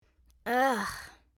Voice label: Ai.Mi Emote Negative

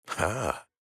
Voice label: Atlas Emote Positive

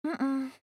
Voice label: Emote Negative; Estelle

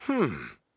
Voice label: Emote Positive

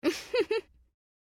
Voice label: Emote Positive

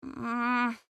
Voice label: Emote Negative; Juliette

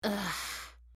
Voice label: Emote Negative

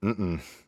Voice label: Emote Negative

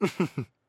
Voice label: Emote Positive

